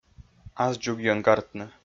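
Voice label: "ас дзугйӧн гартны", Komi-Zyrian, male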